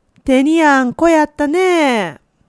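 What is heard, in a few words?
"teniawan", Japanese dialect, woman; hogen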